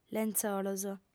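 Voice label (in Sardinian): "lentzolos", Sardinian, female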